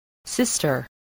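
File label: "sister", spoken word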